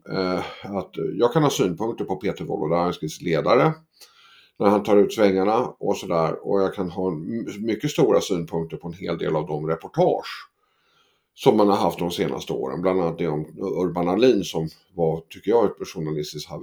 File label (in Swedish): ledarpodcast utdrag